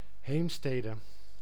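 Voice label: "Heemstede", Dutch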